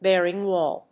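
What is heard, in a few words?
"BEARING WALL", English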